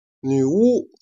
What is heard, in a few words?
"jian", Chinese